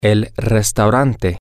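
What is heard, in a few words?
Spanish, the restaurant, male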